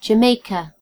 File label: "jamaica", en GB; gcompris